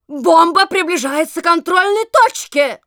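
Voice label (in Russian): Announcer cart defender warning, Russian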